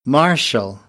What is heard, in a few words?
"marshal", American